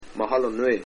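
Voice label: thanks very much Hawaiian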